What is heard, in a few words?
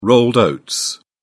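"rolled oats", English, British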